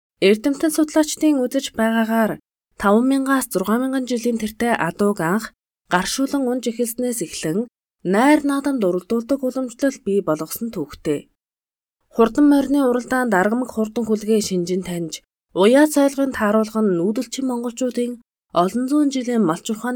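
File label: Mongolian, female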